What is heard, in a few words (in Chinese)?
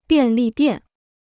"便利店", Chinese